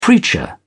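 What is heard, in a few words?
"preacher", British English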